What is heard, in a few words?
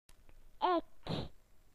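"I", British English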